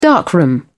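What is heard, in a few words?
"darkroom", British English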